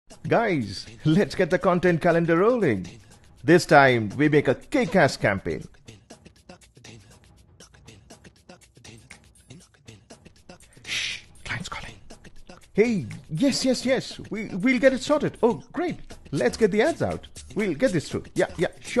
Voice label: Narration English

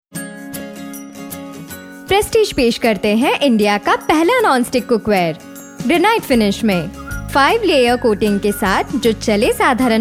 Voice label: promo hin; prestige

